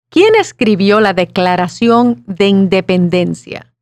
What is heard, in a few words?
Spanish